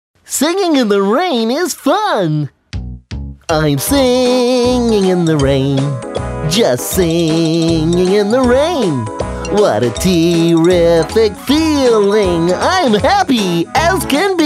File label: Singing Toy